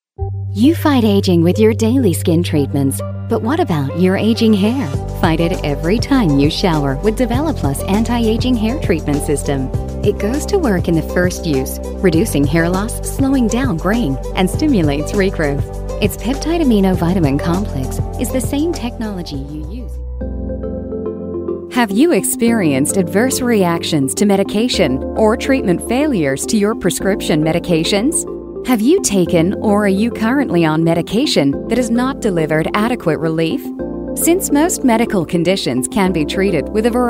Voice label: North American